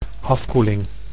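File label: "afkoeling", Dutch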